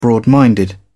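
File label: "broad minded", British English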